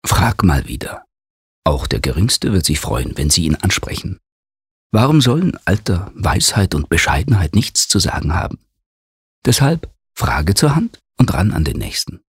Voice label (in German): Werbung Sanft